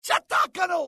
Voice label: alert, dialogue IT; mohaa; attack